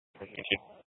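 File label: Tempe police department, scanner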